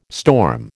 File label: "storm", American